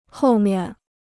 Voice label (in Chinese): "后面", Chinese